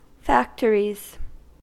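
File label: "factories", American English; pronunciations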